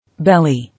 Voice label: "Belong", English